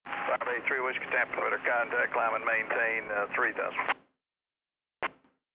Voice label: chatter; rigs of rods